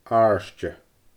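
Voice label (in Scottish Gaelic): "aairde", Scottish Gaelic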